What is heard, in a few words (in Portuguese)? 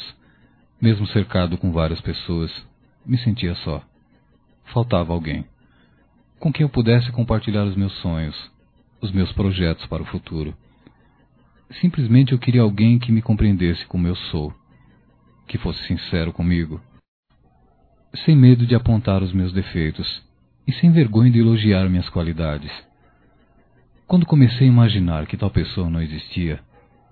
Enfim Te Encontrei Masculino